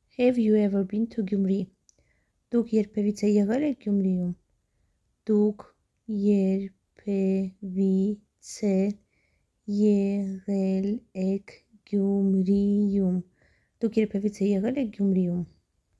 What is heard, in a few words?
Eng Have you ever been to Gyumri Arm Duk erbevits e eghel ek Gyumriyum, armenian talking phrasebook